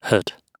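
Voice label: "had", British English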